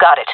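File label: affirmation, female; vo; agents; jungle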